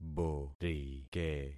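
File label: "borike", concatenated syllables; Affixing experiment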